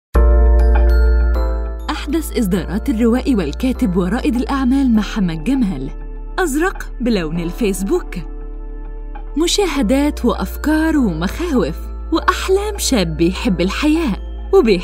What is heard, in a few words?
arabic commercial; native Arabic speaker